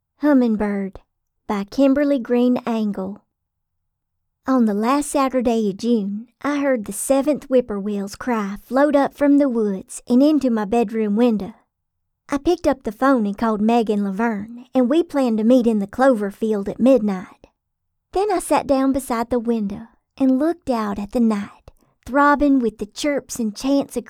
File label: Southern Accent Audiobook